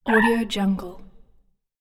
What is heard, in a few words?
alien voice